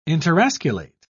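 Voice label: "interosculate", British English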